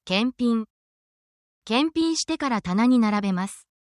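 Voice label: Japanese word, essential Japanese phrases; for your workplace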